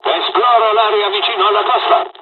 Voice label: mission fly, dialogs italian

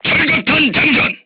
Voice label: voice message load AP, korean, crew tanks

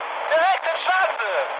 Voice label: bomb success, mood med, speech ge; War Thunder

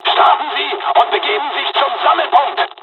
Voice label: takeoff, dialogs german; War Thunder

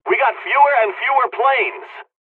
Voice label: dialogs turkish; War Thunder

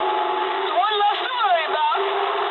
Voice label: eng player killed enemy mood med, speech eng

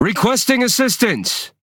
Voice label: Speech Quick Commands Need Support, EN; World Of Warships

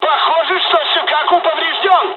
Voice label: dialogs russian; War Thunder

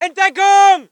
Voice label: voice ger infantry

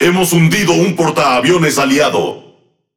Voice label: Es Speech Destroy Ally Aircarrier; World Of Warships

